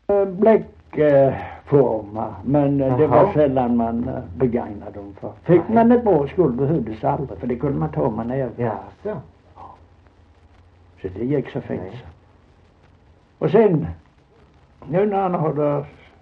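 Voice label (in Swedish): Holm Halland, dialekter; Folkminnen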